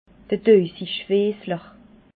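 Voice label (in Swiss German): "tauisigfiessler", Alsatian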